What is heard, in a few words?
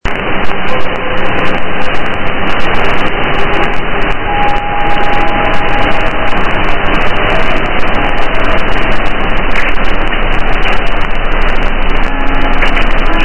1197kHz Radio; IRIB; Arbadil